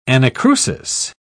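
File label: "anacrusis", British English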